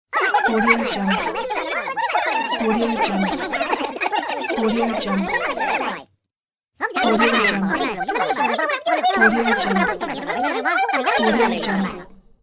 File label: cartoon babble; applehillstudios